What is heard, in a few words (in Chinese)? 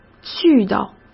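"絮叨", Mandarin Chinese; hsk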